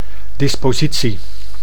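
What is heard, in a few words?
"dispositie", Dutch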